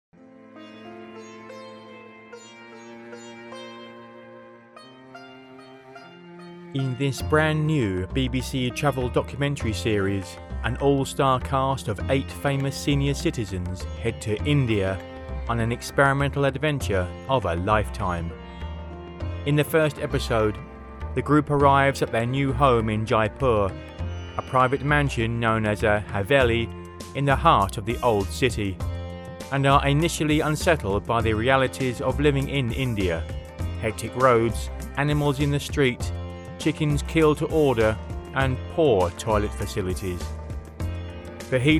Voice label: narrative; Jaipur